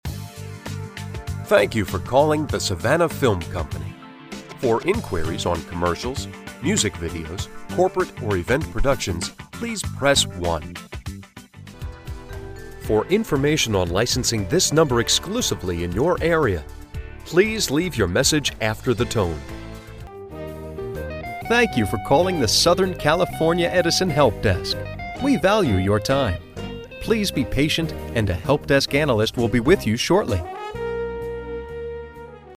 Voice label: Telephony IVR On Hold Voice Mail